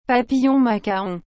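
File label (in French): "papillon machaon", French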